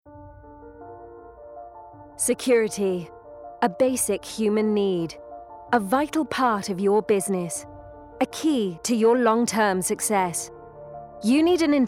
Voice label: VO Reel; SIEMENS